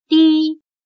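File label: "低" Chinese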